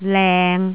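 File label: "leng3", Cantonese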